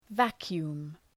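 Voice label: "vacuum", English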